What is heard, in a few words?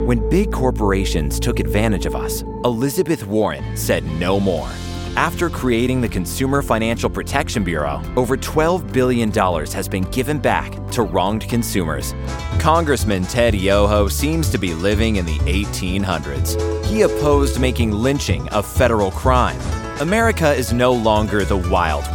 men political